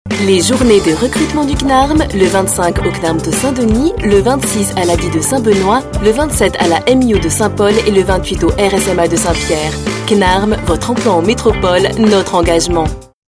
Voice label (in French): pub, voix off; cnarm